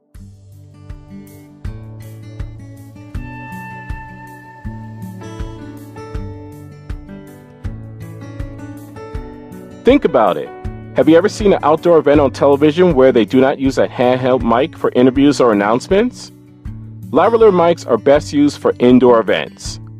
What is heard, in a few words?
voiceover music